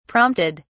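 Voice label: "prompted", British English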